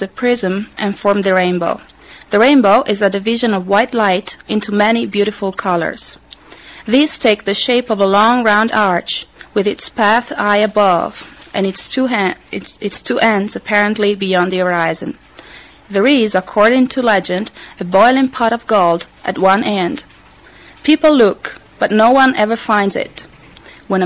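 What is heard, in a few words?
italy, International Dialects of English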